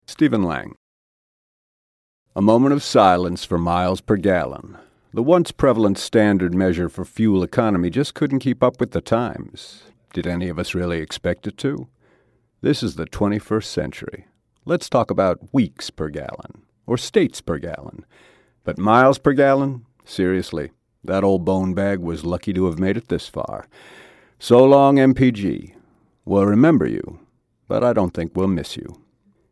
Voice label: commercial; Innovative LA